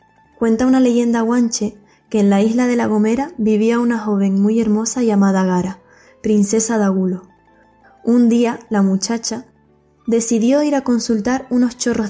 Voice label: Canarian Spanish dialect